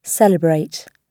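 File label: "celebrate" British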